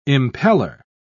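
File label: "impeller", British English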